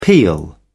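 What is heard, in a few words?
"peal", British English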